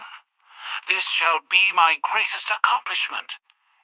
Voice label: happy; fireball; fertig classic games